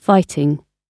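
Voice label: "fighting", British English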